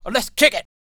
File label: male spoken; R&B Dance